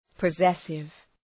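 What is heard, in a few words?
"possessive", English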